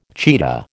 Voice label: "cheetah", American